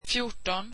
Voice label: swedish fourteen

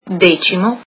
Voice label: italian tenth

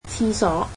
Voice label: "toilet", Cantonese

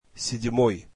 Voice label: russian seventh

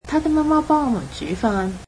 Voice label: "her mother cooks for us", Cantonese

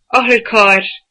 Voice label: "ambulance", Irish

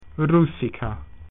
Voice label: "Russian", Latin

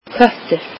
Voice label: icelandic cat

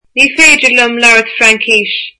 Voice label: I dont speak French, irish

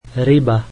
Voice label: slovak fish

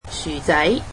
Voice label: "potatoes", Cantonese

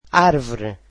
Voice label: "tree", Portuguese

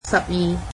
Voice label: "twelve", Cantonese

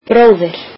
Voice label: icelandic brother

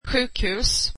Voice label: swedish hospital

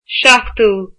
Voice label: "seventh", Irish

